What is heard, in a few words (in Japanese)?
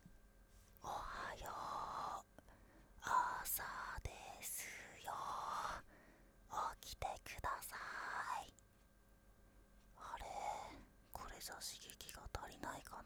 しょたぼ ささやき; 左耳Ver